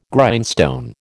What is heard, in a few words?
"Grindstone", American